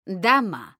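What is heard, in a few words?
"dama", Spanish, female; mekanta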